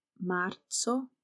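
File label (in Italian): "marzo", Italian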